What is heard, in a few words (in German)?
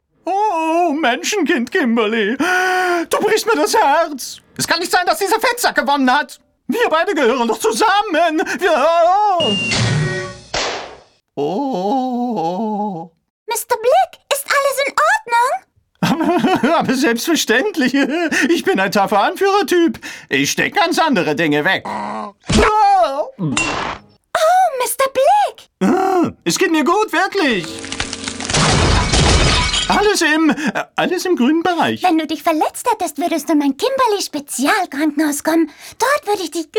trickfilm synchron